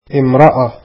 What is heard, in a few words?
woman, arabic